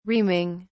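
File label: "reaming", British English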